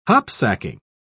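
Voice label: "hopsacking", British English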